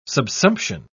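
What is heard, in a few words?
"subsumption", British English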